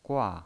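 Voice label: "gua", Mandarin Chinese, fourth tone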